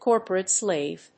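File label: "corporate slave", English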